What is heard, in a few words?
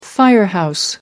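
"firehouse", British English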